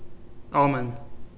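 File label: "almond", Canadian, male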